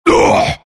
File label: pain; Dota 2; lone druid